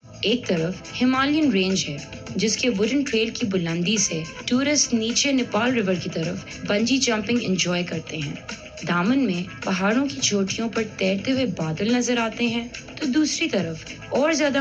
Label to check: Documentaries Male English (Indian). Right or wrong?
Wrong